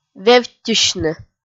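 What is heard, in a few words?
"вевттьысьны", Komi-Zyrian, female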